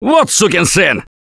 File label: reaction negative, russian; Gambler